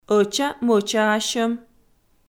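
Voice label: "ӧча-мӧчаасьӧм", Komi, female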